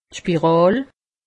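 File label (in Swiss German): "spiral", Alsatian; medecine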